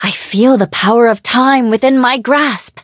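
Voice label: levelup, English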